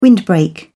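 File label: "windbreak", British English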